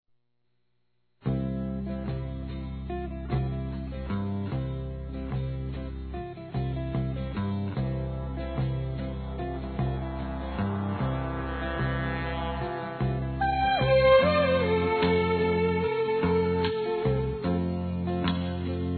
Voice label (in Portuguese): AO DOUTOR(A), masc; Telemensagens; Convites e Agradecimentos; Telemensagens 2017